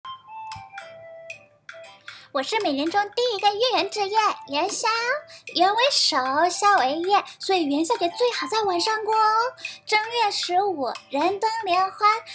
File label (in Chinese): 国语童音 元宵